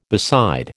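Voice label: "beside", American English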